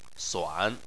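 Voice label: "suan", Chinese, third tone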